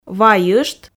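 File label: "вайышт", Komi, female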